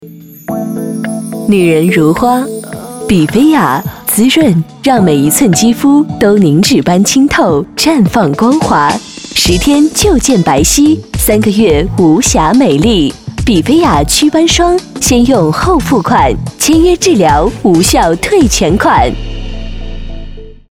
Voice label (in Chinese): 女 品质感; 比菲雅祛斑霜